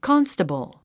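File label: "constable", English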